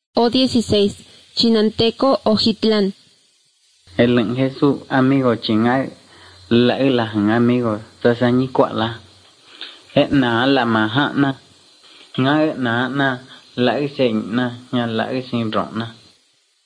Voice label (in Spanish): spanish mexico